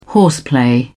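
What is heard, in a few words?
"horseplay", British English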